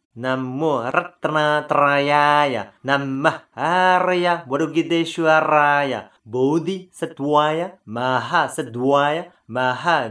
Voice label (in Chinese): 如意輪觀音咒(直誦); 觀世音菩薩系列 Avalokiteśvara Series; 如意輪觀音心咒